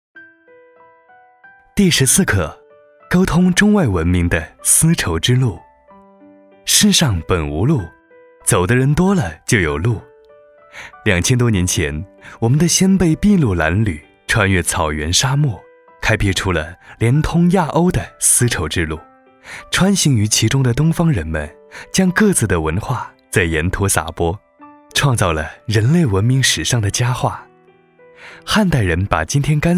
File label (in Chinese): 男, 课件; 丝绸之路